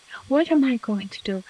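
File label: voice acting, es, female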